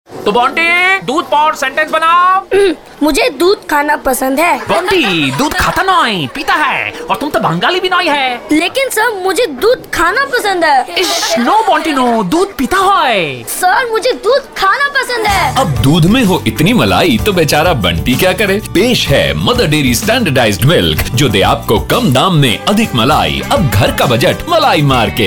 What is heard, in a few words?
joy kid spot, male voice